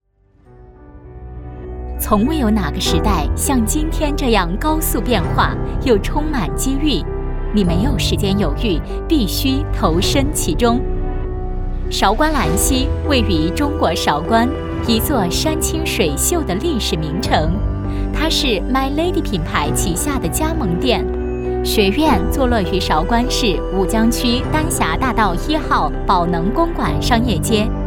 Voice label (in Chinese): 女-专题-女子形体; M.lady韶关兰希女子形体